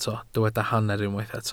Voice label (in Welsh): "hanner", Welsh